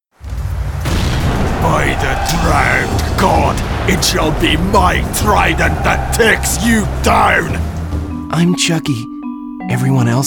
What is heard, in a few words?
Character Reel